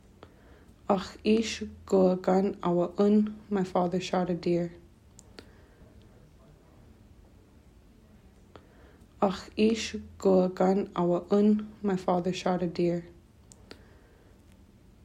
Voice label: my father shot a deer, tli; hunting phrases

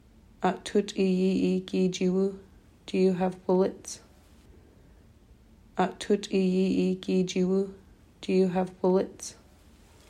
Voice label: do you have bullets, tli; hunting tools

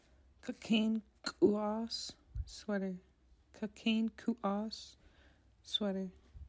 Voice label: sweater, tli, clothing; December

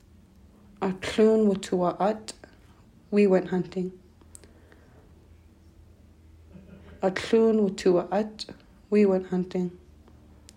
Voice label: we went hunting, tli; hunting phrases

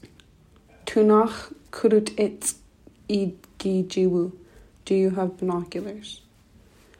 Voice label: do you have binoculars, tli; hunting tools

